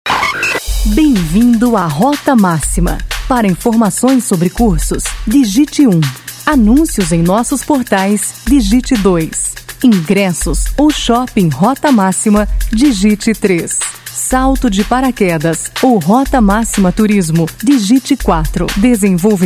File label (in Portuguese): espera telefonica